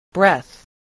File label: "breath", spelling